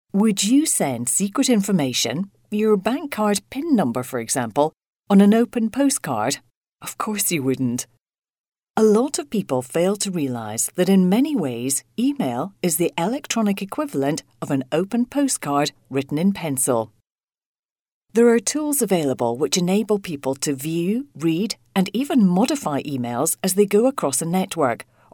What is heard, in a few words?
corporate learning